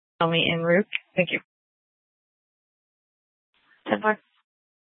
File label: PD Dispatch